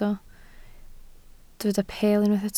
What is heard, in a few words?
"peel", Welsh